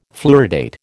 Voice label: "fluoridate", American